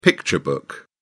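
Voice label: "picture book", British English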